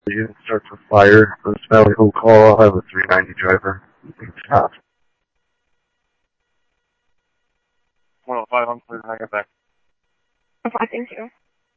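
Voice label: police radio dispatch, Scottsdale; SCTSDLE PD - PD1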